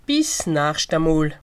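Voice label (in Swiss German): "nachschta mol", Haut-Rhin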